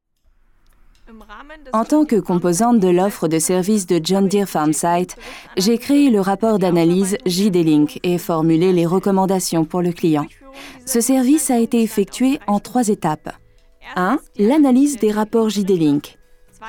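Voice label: French, Neutral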